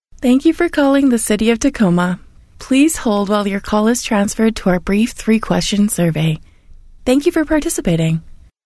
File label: Voice Prompt